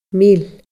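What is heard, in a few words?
"mil", Scottish Gaelic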